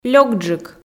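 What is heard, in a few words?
"лёкджык", Komi, female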